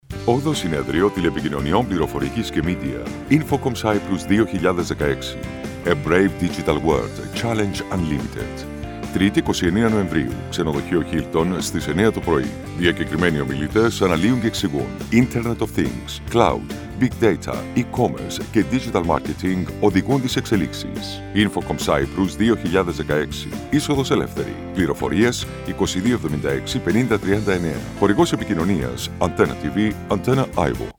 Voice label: radio spot